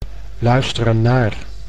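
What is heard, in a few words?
"luisteren naar", Dutch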